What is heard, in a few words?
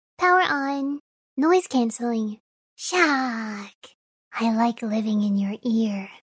ENG; aviot